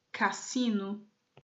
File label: "cassino", portuguese